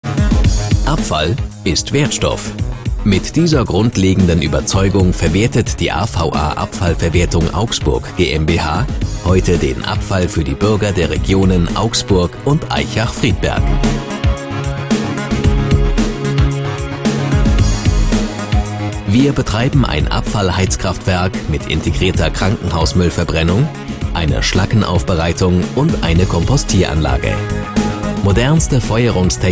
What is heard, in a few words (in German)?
Sprecher imagefilm dynamisch